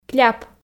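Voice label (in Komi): "кляп", Komi, female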